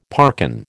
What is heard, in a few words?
"parkin", American